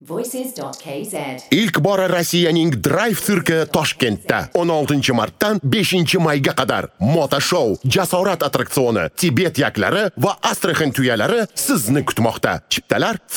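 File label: Uzbek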